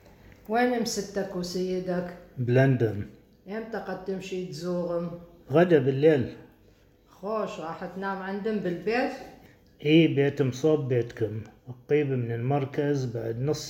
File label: Baghdadi Judeo-Arabic; Audio recording 4.1.2 to accompany section on Lesson 4: Food, Drinks and Travelling; (when are you travelling?)